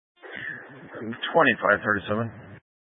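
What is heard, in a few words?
Sheriff Dispatch, Scanner audio recording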